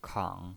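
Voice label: "kang", third tone, pinyin voice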